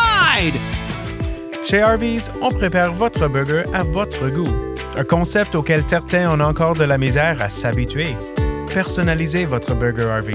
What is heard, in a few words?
VO Demos With Music